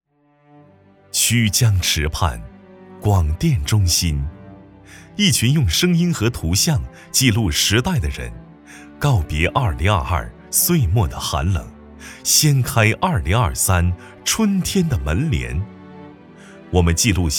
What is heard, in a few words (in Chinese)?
男 大气讲述; 西安广电